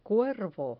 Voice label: "cuervo", Spanish